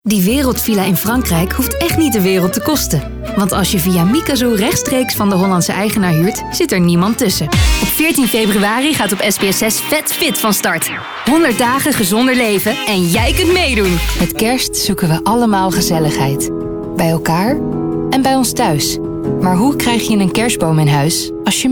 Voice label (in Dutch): Voice Commercial NL